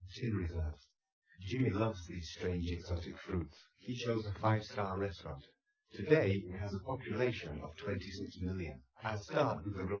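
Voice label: male speech; SiSEC10; underdetermined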